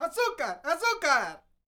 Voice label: MEXICO VOICE, MEXICAN; ILIO; Vocal Planet VOL-5